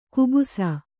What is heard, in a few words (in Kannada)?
"ಕಬ್ಬಸು", Kannada